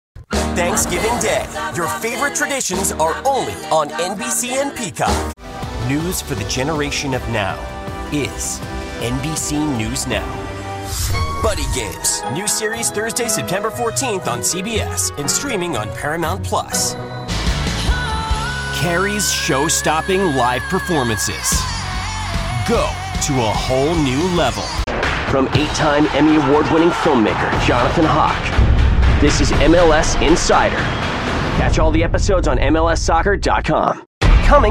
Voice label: promo

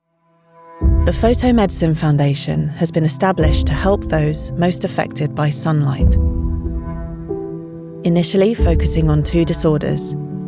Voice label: Caring scientific; Clinuvel

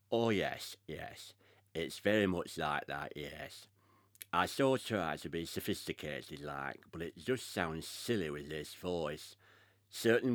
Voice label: Lisp

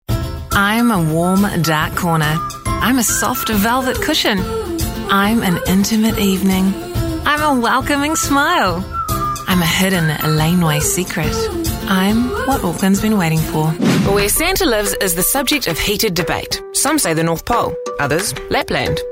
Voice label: NZ Reel

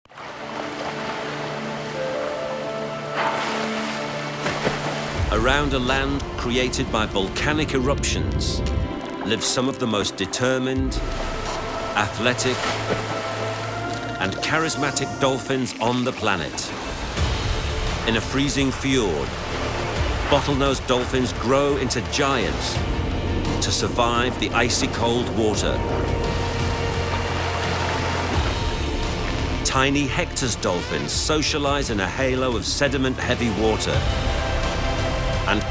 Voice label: documentary; National Geographic; Wild Dolphins

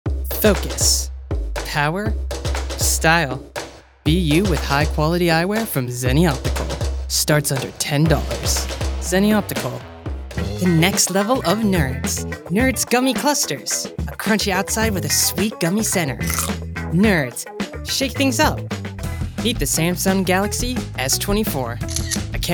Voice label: Commercial

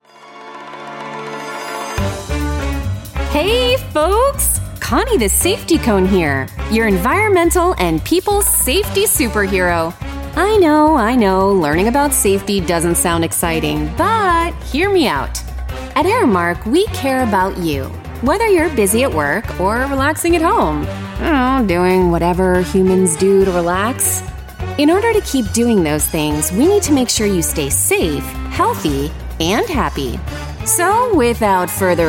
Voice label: CHARACTER QUIRKY ENERGETIC; Aramark Safety

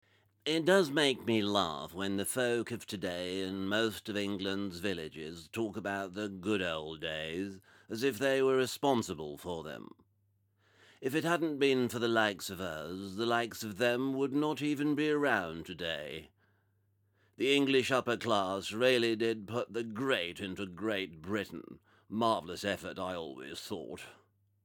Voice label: Upper Class English Toff

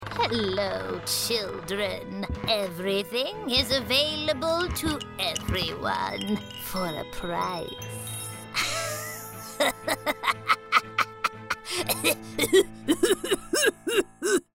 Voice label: Crone Long; en-GB

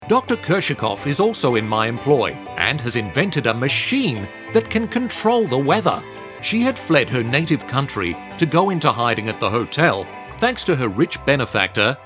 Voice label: Character

